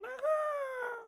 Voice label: Pig Chef, Voices